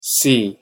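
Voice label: pronunciation "see", English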